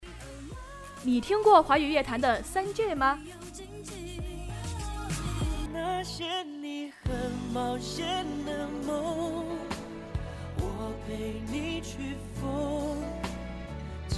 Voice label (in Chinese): 音乐节目